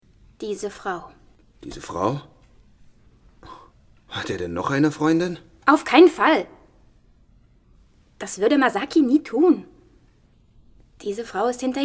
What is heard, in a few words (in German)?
Synchron Thriller